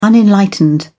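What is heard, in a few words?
"unenlightened", British English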